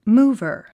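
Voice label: "mover", English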